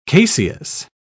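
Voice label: "caseous", British English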